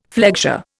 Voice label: "flexure", Indian